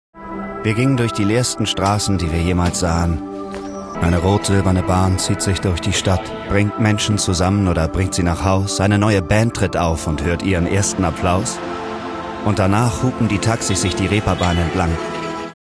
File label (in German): Werbung; HVV